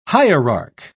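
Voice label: "hierarch", English, British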